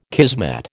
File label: "kismet", American